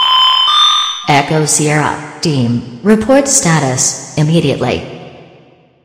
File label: announcement; svencoop; st mission